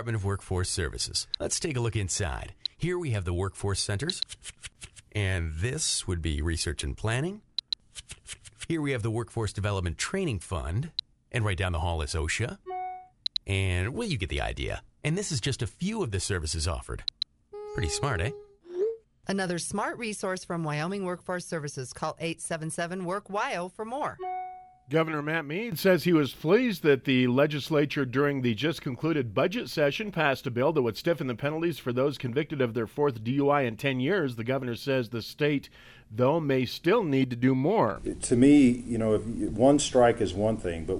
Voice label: radio news; wyoming